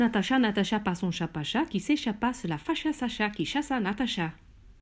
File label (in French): virelangue